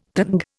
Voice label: "tanga", Indian

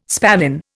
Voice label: "spavin", Indian

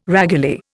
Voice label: "raguly", Indian

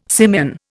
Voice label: "simian", Indian

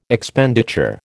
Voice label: "Expenditure", American

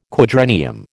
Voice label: "quadrennium", American

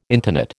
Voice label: "intonate", American

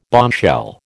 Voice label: "bombshell", American

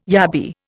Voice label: "yabby", Indian

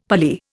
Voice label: "Pali", Indian; Dictionary

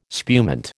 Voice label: "spumante", American

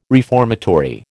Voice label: "reformatory", American

